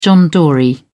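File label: "john dory", British English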